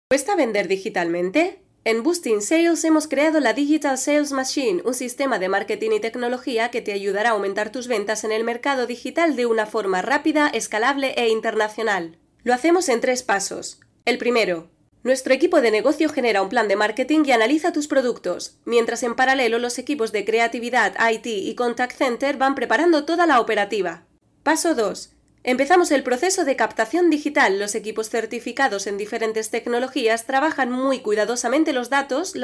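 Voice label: Spanish female; 西班牙语; 外语; 小语种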